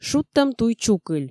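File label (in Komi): шудтӧм туй чукыль, Komi, female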